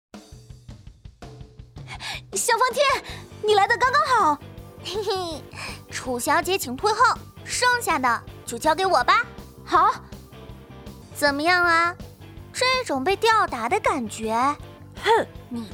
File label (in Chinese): 元气小萝莉